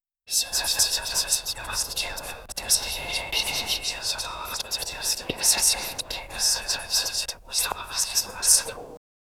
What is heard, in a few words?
whispering voice overlapp, ancient language